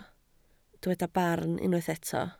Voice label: "barn", Welsh